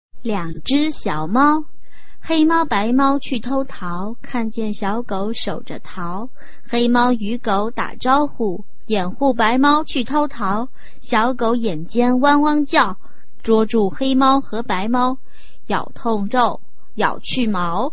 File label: chinese tongue twister